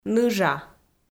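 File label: "ныжа", Komi, female; audio dict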